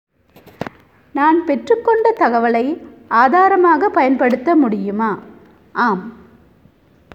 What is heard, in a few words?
Tamil